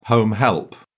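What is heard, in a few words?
"home help", British English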